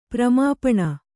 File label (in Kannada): "ಪ್ರಮಾಪಣ", Kannada